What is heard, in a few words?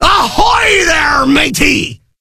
greet Pirate; rage of the seas